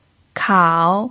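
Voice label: "kao", third tone, Mandarin Chinese pinyin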